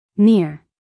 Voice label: "near", US female; everyone-can-use-english